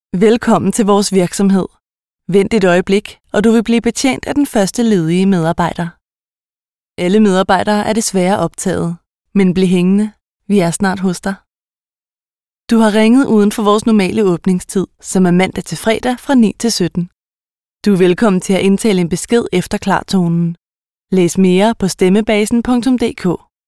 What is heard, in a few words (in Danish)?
NEUTRAL KVINDE; Stemmebasen